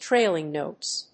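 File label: "trailing notes", English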